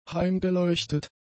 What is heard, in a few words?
"heimgeleuchtet", German; adjectives